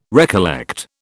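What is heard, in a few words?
"recollect", British English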